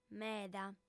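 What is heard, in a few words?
"meda", female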